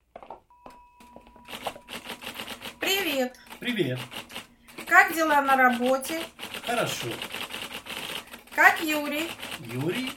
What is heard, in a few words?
dialog